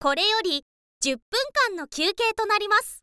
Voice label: info girl; koreyorizyuppunkanno; voice

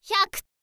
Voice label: "hyaku", girl; voice

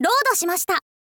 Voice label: "load shimashita", info girl voice

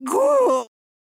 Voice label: necromancer old woman damage

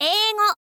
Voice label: "eigo", girl; voice

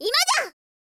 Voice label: princess attack, voice

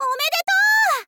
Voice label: "omedetou", girl; voice; info girl

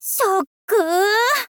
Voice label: shock, girl

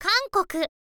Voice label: "kankoku", girl; voice

